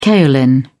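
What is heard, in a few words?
"kaolin", British English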